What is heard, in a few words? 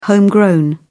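"homegrown", British English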